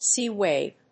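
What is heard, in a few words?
"C wave", English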